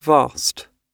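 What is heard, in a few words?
"vast", British English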